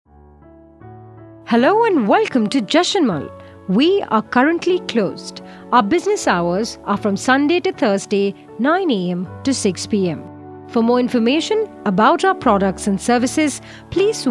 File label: IVR, Eng